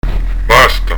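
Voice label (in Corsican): "basta", Corsican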